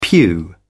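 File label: "pew", English, British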